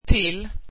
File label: to, swedish